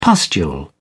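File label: "pustule", British English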